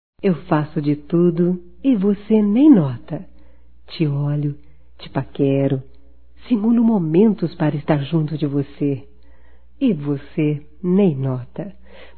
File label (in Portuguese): AMOR NÃO CORRESPONDIDO, Românticas fem